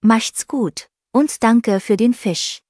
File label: so long, german